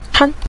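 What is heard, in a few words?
"chan", Mandarin, neutral tone; 盘缠; pán chan; money for a voyage; travel expenses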